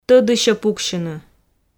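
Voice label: "тӧдысьӧ пуксьыны", Komi, female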